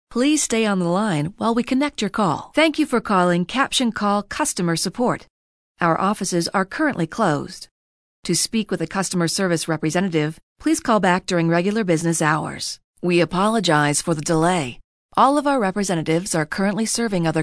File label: ivr; Talent Management Group, Inc.; Murray, UT